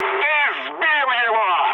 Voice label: player killed enemy, mood med, speech ru; War Thunder